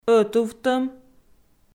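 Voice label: "ӧтувтӧм", Komi, female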